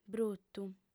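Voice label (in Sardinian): "brutu", female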